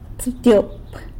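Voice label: "tsëbtyop" twelve, San Lucas Quiaviní Zapotec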